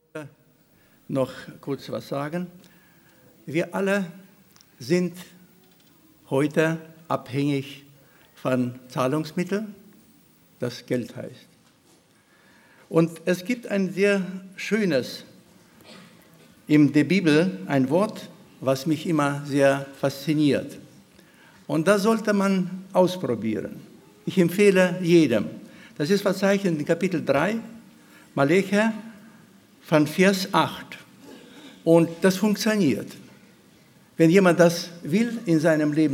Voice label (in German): Spendenaufruf